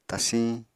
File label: "tasiñ", apatani